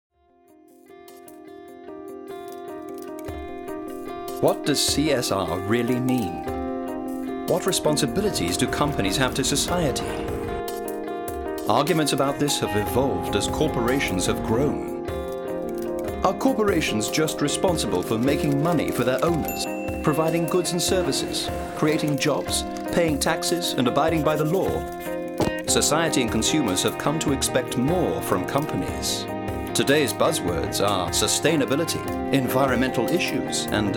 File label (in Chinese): 英語男聲